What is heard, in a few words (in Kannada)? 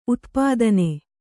"ಉತ್ಪಾದನೆ", Kannada